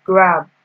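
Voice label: "grab", Nigerian Pidgin, female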